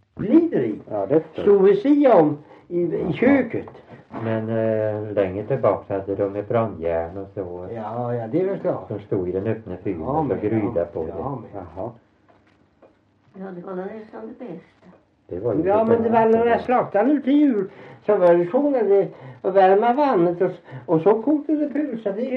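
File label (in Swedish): Värö Halland, Svenska sagor dialekter; Folkminnen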